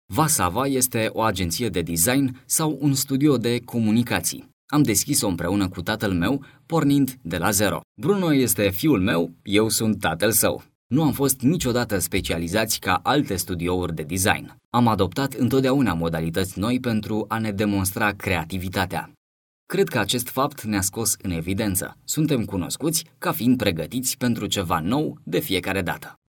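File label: Romanian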